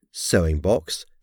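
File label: "sewing box", English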